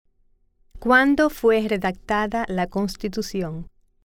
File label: Spanish